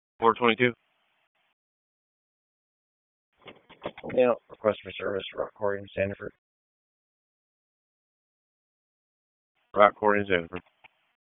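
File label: Police Southeast District Dispatch; Raleigh; wake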